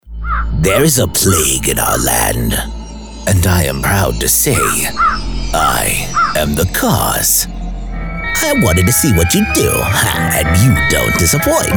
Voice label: Evil Character